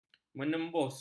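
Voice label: "menembus", Malay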